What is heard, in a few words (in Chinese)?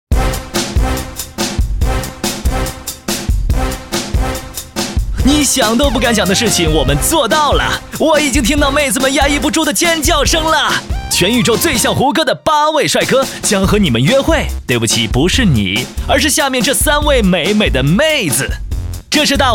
男 幽默动感 综艺宣传片; 飞碟说